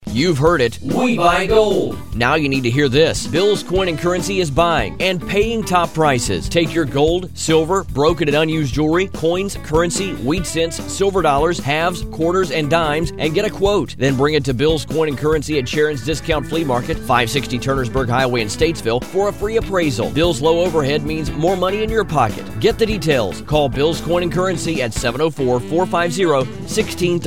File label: radio commercial; Bill's Coins